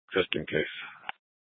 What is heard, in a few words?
Sheriff, scanner; Shrf Lakes; Maricopa County (P25); Thompson Peak